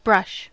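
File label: "brush", US English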